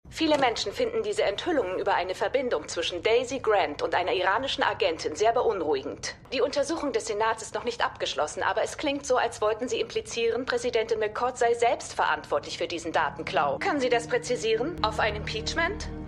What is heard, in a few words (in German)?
Nachrichtensprecherin; Madam Secretary